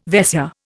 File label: "Vaisya", Indian